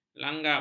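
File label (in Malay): "langgam", Malay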